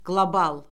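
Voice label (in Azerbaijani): "qlobal", Azerbaijani; Orfoqrafiya Lüğəti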